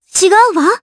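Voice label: Vox Deny, Japanese; Kirze; kingsraid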